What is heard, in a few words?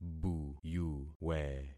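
"buyuwe", concatenated syllables; Affixing experiment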